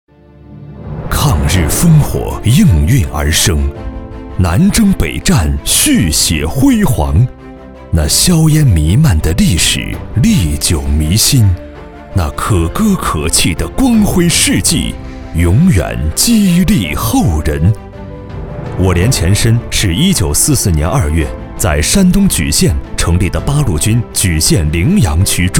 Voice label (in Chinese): 男 专题片 抗日激昂风格